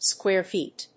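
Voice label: "Square feet", English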